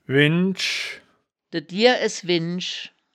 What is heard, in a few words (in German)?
"windsch", wittlich